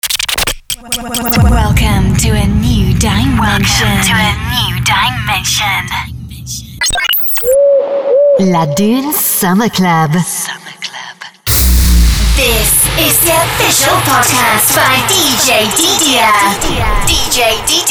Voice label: DJ Liners; voiceovers